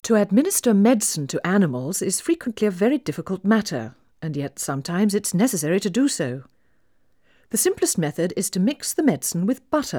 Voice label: Female speech English Mono output noised; 4bit